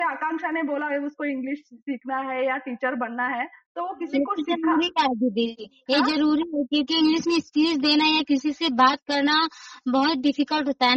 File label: ambition english Learning